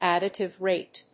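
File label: "additive rate", English; 添加率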